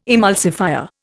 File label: "emulsifier", Indian